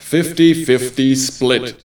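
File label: vocal male, Dance Vocals, spoken words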